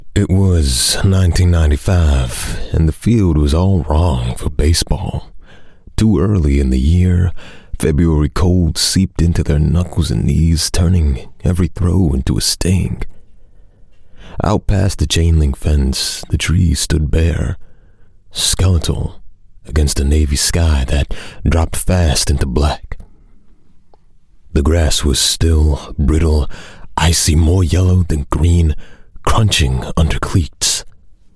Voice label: Narration, en-AU